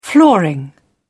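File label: "flooring", British English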